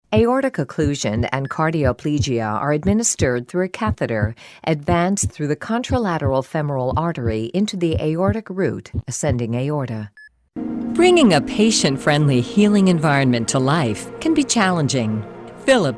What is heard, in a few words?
english american medical narration